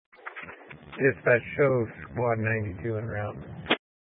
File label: Fire Dispatch